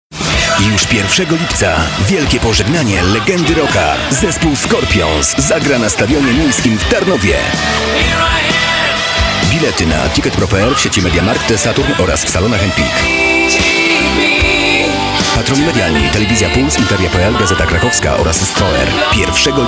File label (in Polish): reklama